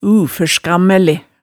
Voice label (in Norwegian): "uførskammæle", hemsedalsmaalet tale